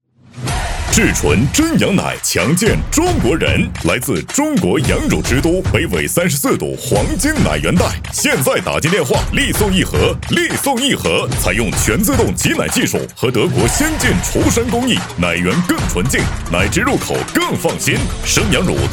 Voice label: 男 广告 电购广告 叫卖

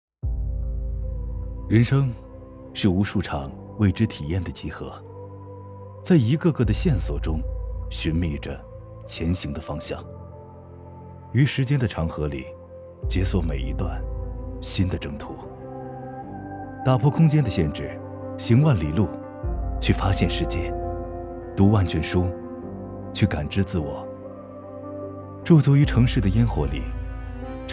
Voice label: 男 广告 时尚; 快手app

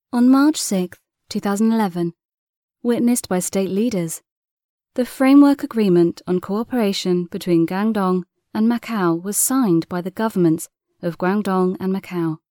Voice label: 某产业园最新视频宣传片解说

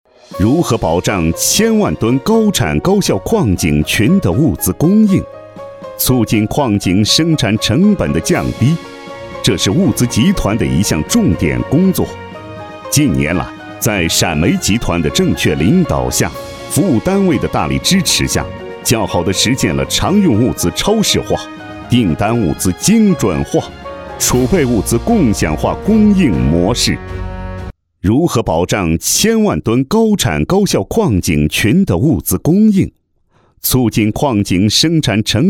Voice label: 男 专题 智慧矿业

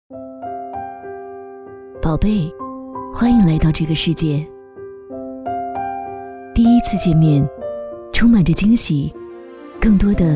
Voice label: 女 专题 温馨 宝贝

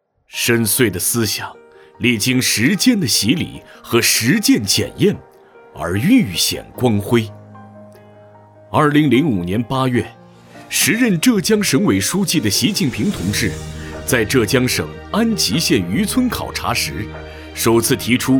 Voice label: 男 专题 人物专题 深邃的思想

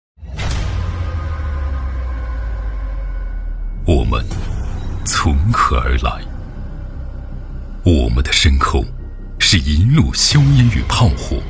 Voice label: 男 广告 独白 片 深沉高端; 我们是谁

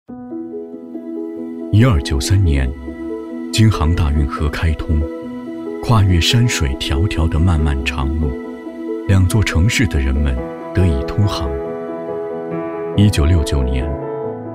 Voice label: 男 走心品牌; 米兰柏羽

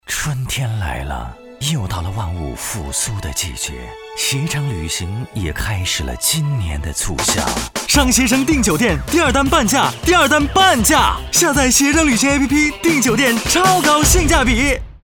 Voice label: 男 广告 活力促销 模仿, 国语男声配音

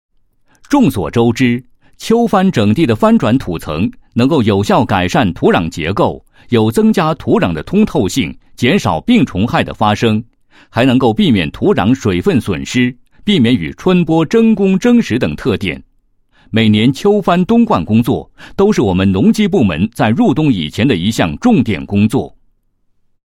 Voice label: 男 解说词; 农业 凝心聚力促生产

